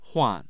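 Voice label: "huan", Mandarin Chinese, tone 4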